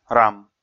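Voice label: "рам", Komi, male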